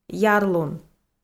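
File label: "ярлун", Komi, female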